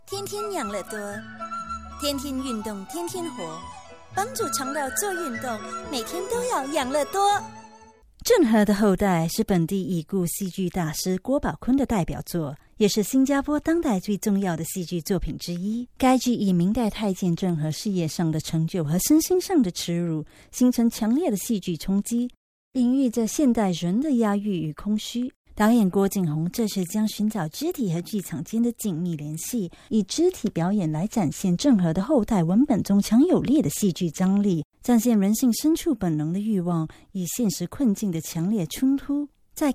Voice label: Chinese Mandarin, Female, Teens-30s

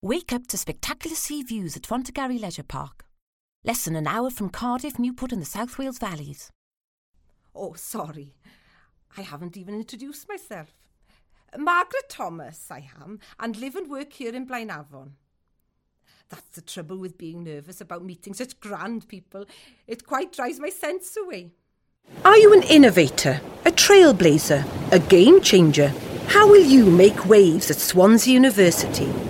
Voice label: English

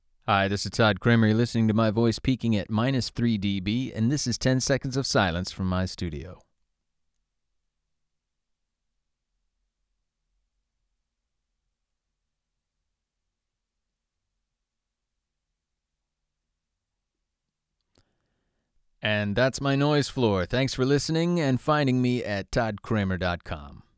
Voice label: Home Studio

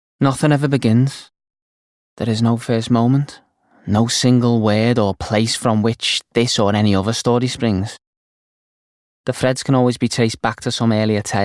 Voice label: Audiobook

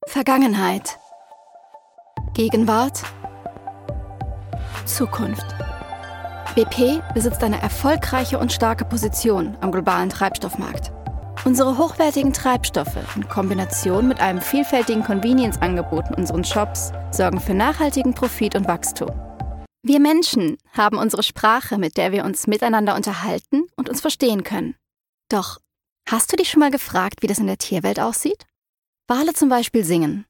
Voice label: German

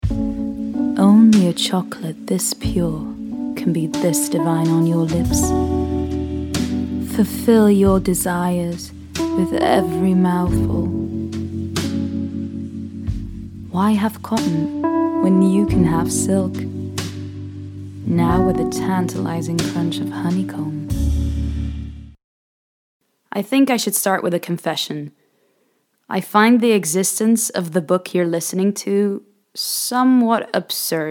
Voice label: English UK AND US

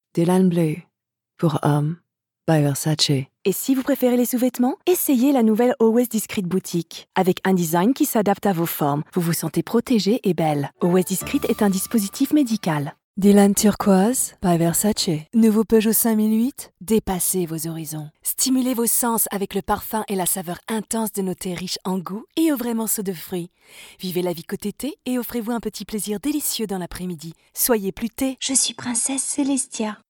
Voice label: French

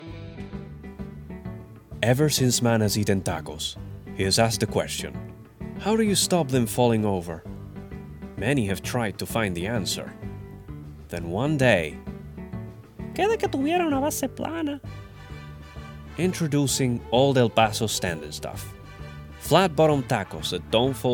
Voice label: English Spanish Accent

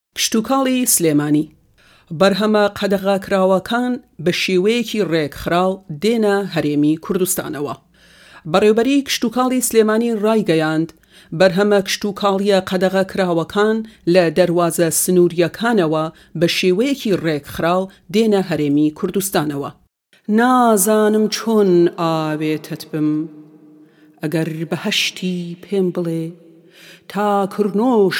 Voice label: Kurdish

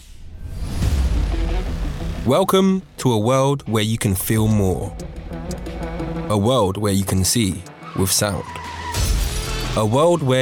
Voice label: Commercial

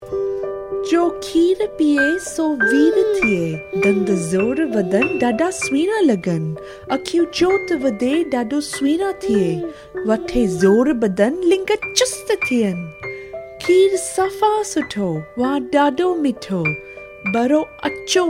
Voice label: Sindhi

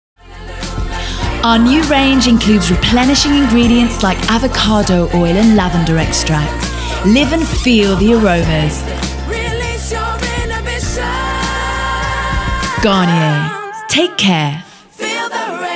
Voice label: English, Spanish-Latin (Colombia), Female; 20s-30s

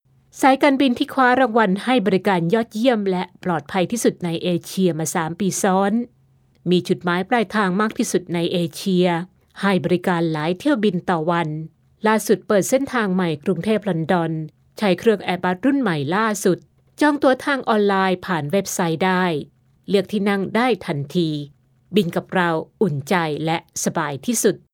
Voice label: Thai

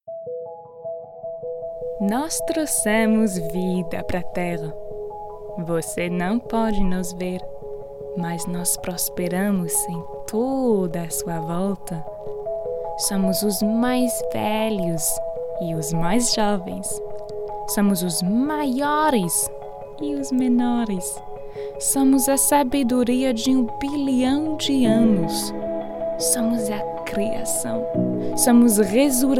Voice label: Portuguese narration

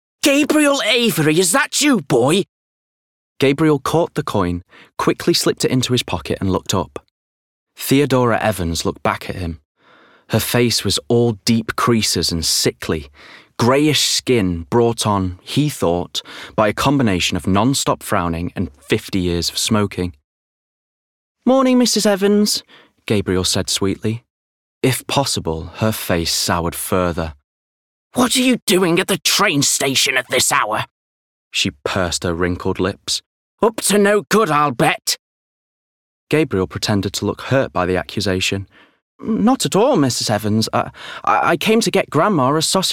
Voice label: Book, 30s

